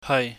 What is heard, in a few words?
"heui", chinese